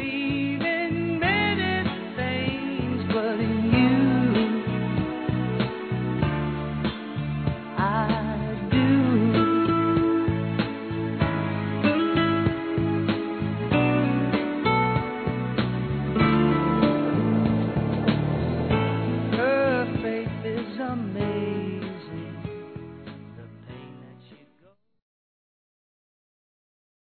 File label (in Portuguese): Ano Novo Irmão Feminino; Telemensagens; Natal e Ano novo